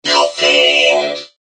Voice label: hurt vo; mech mortis